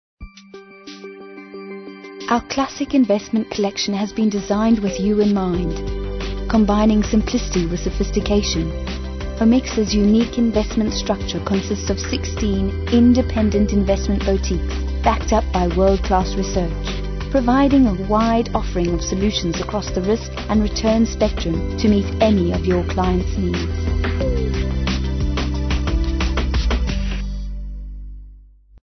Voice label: Corporate